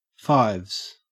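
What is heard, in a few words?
"fives", Australian English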